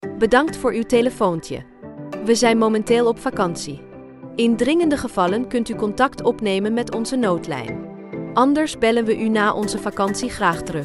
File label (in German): urlaub ansage